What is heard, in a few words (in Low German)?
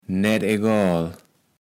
"naettegoal", kaevels platt